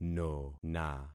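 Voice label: "nona", concatenated syllables; Affixing experiment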